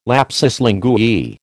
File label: "lapsus linguae", American